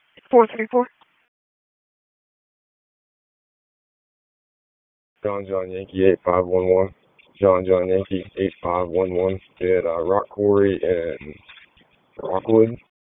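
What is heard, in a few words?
Police Southeast District Dispatch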